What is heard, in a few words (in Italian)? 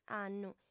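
"annu", sarda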